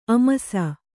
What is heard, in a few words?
"ಆಮಿಸ", Kannada